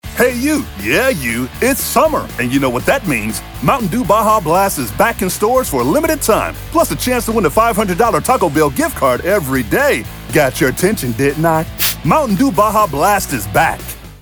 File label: Vo Spot; Mt Dew